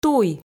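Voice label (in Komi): "туй", Komi, female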